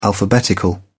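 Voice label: "alphabetical", British English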